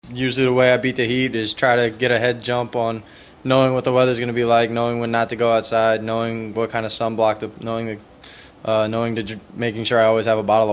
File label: vox pop; heat